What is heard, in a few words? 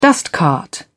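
"dustcart", British English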